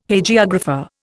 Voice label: "Hagiographer", Indian